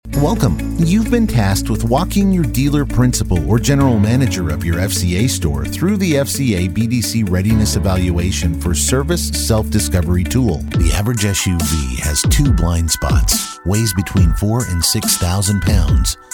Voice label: Male Voice Over E Learning